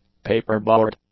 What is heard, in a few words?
"paperboard", English; nouns